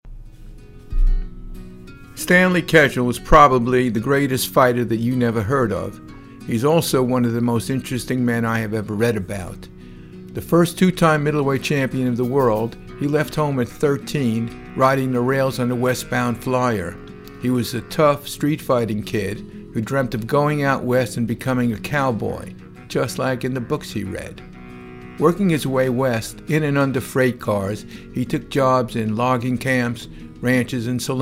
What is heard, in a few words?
Voice Over; Stanley Ketchel